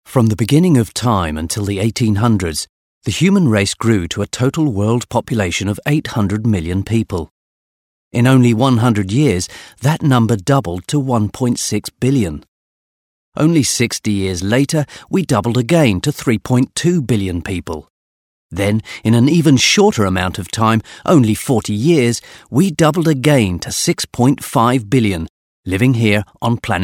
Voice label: Documentary

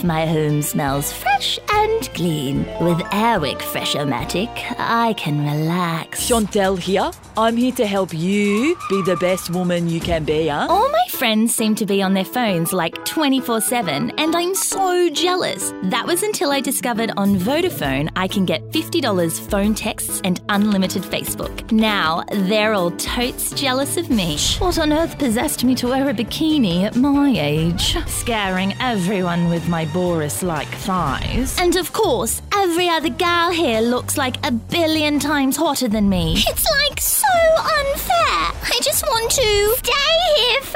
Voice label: Accents

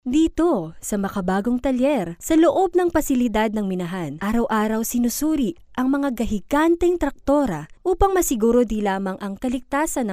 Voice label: Mining Narration

